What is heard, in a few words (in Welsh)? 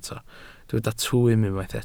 "twym", Welsh